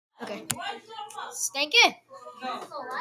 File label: Stanky Indian Accent